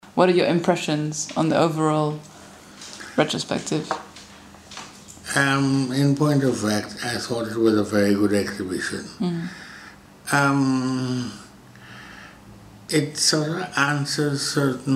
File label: voiceover